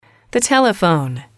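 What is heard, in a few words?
"the telephone", English, female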